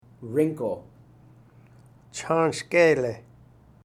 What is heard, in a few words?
wrinkle, siletz